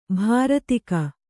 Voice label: "ಭೃತಕ", kannada

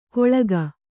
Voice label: "ಕೊಳ್ಕ", Kannada

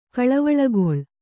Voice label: "ಕಳವಳಗೊಳ್", Kannada

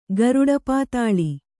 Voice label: "ಗರುಡಪಾತಾಳಿ", Kannada

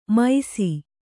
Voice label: "ಮೈಸಿ", Kannada

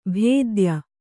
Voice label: "ಭೇದ್ಯ", kannada

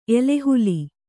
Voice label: "ಎಲೆಹುಲಿ", Kannada

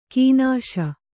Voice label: "ಘನಿಸು", Kannada

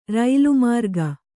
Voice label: "ರೈಲುಮಾರ್ಗ", Kannada

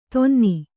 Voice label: "ಧೂನನ", Kannada

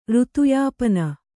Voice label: "ಋತುಯಾಪನ", Kannada

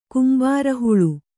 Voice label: "ಕುಂಬಾರಹುಳು", Kannada

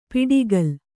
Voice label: "ಪಿಡಿಗಲ್", Kannada

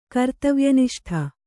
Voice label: "ಕರ್ತವ್ಯನಿಷ್ಠ", Kannada

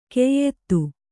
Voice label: "ಕೆಯ್ದು", Kannada

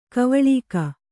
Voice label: "ಗವಳಿಗ", Kannada

